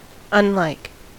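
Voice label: "unlike", American English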